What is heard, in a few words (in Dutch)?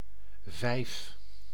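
"vijf", Dutch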